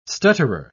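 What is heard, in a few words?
"stutterer", English, uk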